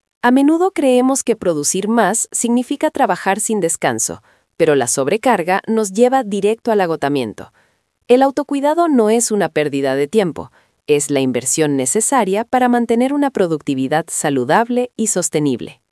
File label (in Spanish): locucion